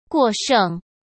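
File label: "过剩", Mandarin Chinese; chinese flashcards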